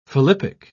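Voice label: "philippic", British English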